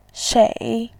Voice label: "shei3", voice

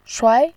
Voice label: "shuai2", voice

